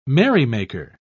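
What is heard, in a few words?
"merrymaker", British English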